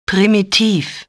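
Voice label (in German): "primitiv", German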